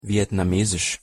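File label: pronunciation German "vietnamesisch"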